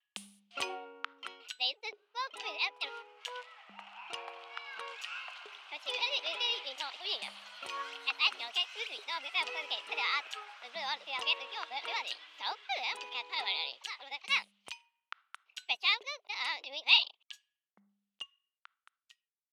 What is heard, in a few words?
Radio Commercial; International Museum Day